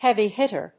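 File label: "Heavy hitter", English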